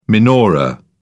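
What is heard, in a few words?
"menorah", British English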